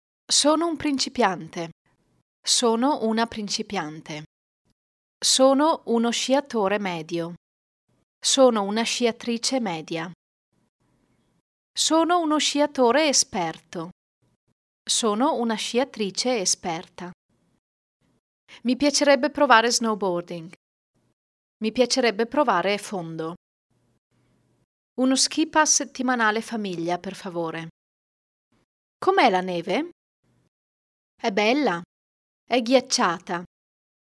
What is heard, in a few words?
Italian